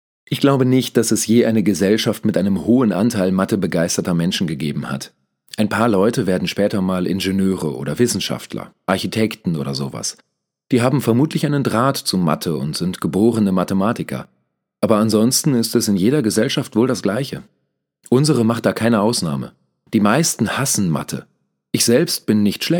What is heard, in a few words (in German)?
Voiceover Mathe